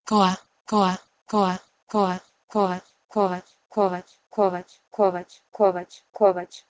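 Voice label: Proto-Indo-European kouh2e to Bosnian kovaC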